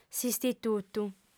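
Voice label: "s'istitutu", Sardinian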